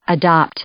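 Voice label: "adopt", English; verb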